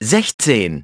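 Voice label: "sixteen", English; iOS 4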